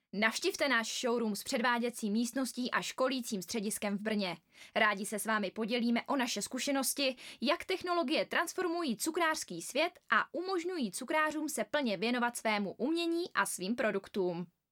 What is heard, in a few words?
czech